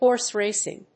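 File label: "horse racing", English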